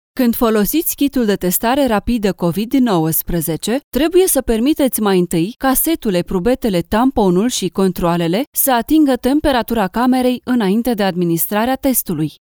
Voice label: Romanian Native

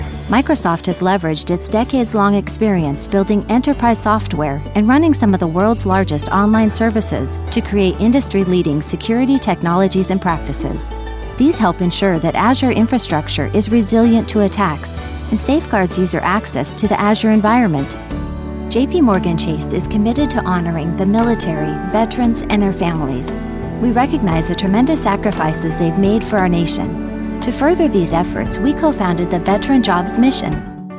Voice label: English USA

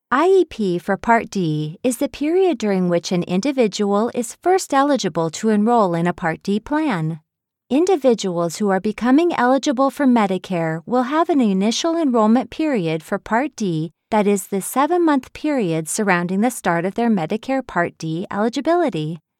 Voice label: English USA

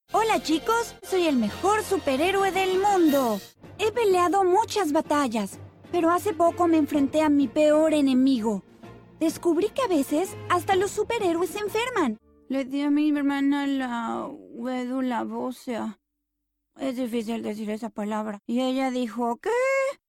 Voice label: Spanish Latin

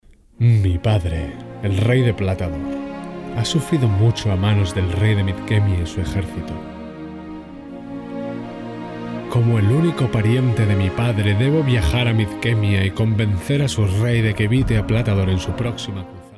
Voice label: Spanish Spain

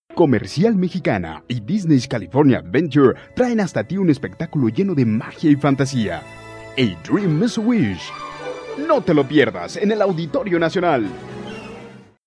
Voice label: Spanish Latin

